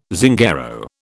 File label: "Zingaro", British